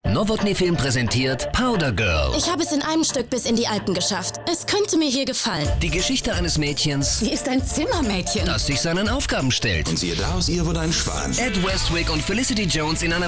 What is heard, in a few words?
spot; powdergirl